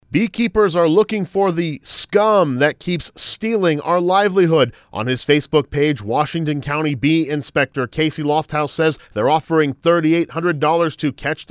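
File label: voice public service announcement; BEEKEEPER REWARD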